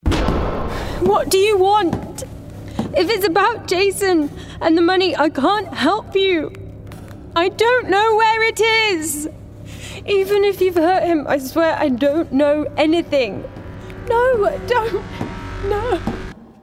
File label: Gaming Everywoman